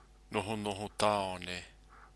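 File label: "nohonoho taone", Māori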